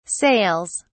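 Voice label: "sails", British English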